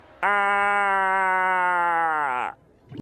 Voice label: purple fish yell; spongebob